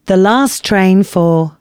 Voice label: announcements, female

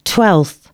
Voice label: announcements female

